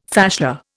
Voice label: "fascia", Indian